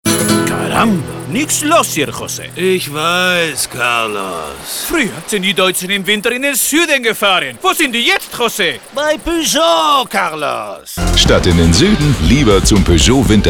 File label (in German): Werbung Peugeot Wintercheck